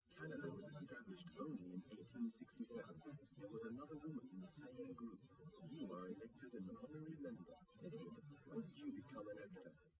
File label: male, live rec, 130ms, 1m; SiSEC08; SiSEC underdetermined